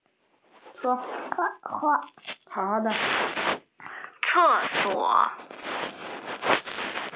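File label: "ce4 suo3", Mandarin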